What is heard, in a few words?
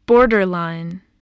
"borderline", spelling